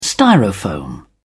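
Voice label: "styrofoam", English, uk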